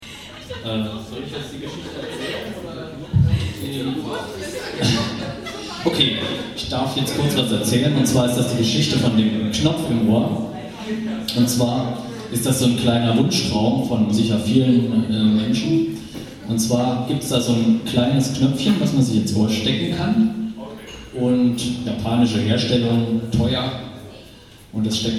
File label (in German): Ansage